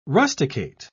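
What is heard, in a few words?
"rusticate", English, British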